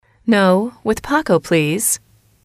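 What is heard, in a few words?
"no with please", English, female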